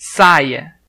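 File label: "skirt", Brazilian Portuguese; gcompris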